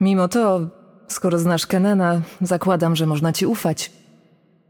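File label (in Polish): Intro, Polish; Yunara; bloom minigame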